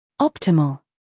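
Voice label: "humor", British; optimal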